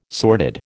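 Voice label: "sordid", American English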